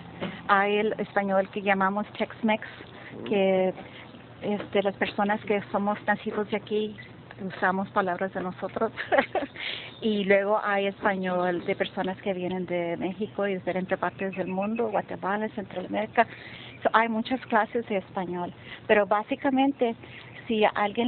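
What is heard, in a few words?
USA San Antonio Spanish in US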